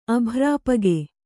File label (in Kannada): "ಅಭ್ರಾಪಗೆ", Kannada